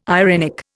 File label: "irenic", Indian